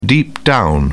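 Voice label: "deep down", British English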